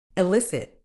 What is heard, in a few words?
"elicit", uk